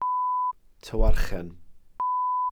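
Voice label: "tywarchen", Welsh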